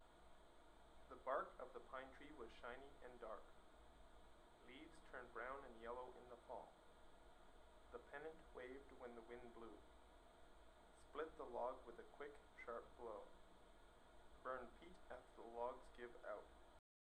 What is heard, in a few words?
male voice voice passthrough